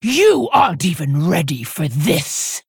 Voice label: Female Orc Play

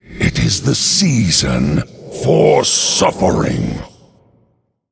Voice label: Male Undead Greetings

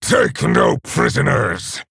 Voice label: Male Orc; Captain Galvangar

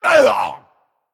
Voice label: Male Stoneborn Death

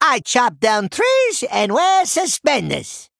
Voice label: Male Goblin Idle

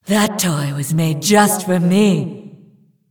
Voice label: Shivarra Demon Emote Play

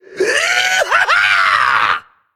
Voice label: Male Forsaken Death

